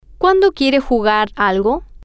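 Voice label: when do you want to play something, Spanish, female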